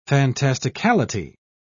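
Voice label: "fantasticality", British English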